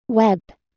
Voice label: "web", Proto Germanic